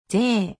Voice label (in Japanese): "ぜ", 日本語; 基礎日語五十音聽力